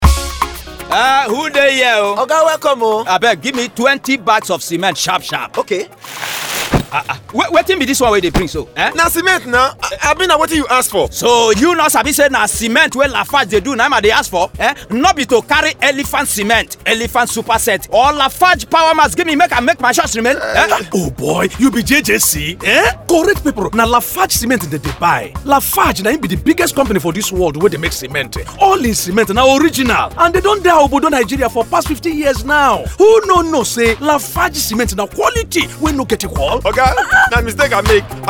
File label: Angry Radio; LAFARGE Cement